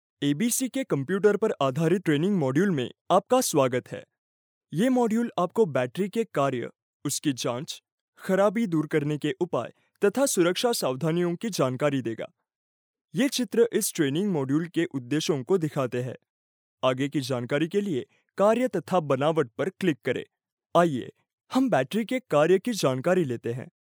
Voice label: male hindi voice over talent narrative